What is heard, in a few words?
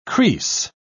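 "creese", British English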